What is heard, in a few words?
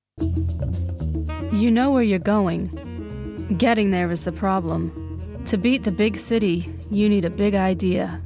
Streetwise New York accent